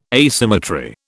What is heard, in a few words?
"asymmetry", British